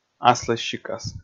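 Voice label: "аслыссикас", Komi, male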